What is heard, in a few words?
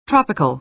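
"tropical", American English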